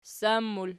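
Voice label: ssan plural, Archi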